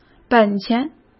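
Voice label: "本钱", Mandarin Chinese; hsk; chinese-flashcards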